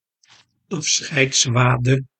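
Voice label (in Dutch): "afscheidswade", Dutch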